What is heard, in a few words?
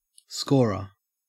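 "scorer", Australian English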